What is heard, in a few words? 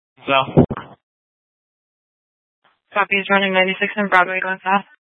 Sheriffs Office, scanner